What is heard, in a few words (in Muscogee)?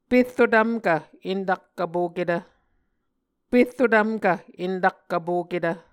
"perro tvmkv entak vpoketv", Muscogee